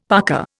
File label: "parka", Indian; Dictionary